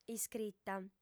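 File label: "iscrita", Sardinian, female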